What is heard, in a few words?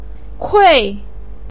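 "kui" fourth tone, chinese, voice pinyin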